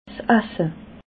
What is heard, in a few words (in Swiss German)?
"asse", alsace